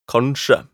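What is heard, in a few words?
"kanskje", Norwegian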